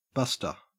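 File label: "buster", Australian English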